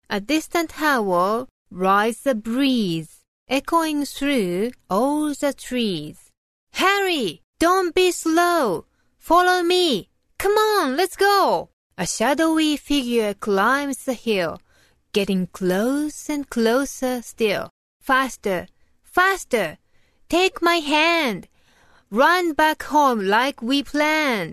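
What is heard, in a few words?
Japanese English, female, narration; voice over; accent of japan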